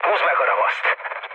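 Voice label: attack position, mood low, Hungarian; War Thunder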